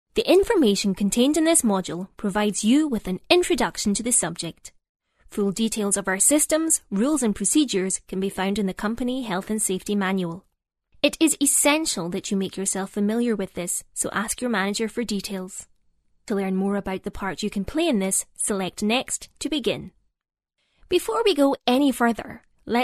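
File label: English Scottish female, scottish voice over talent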